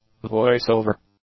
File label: "voice-over", English; nouns